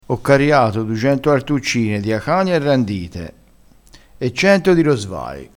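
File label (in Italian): "rosvai", vocabolario dialettale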